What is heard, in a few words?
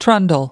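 "trundle", US English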